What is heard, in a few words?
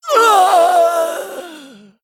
Female Furbolg Death